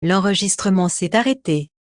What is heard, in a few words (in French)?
recording Off fr CA; jitsi meet